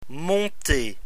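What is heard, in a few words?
"monter", French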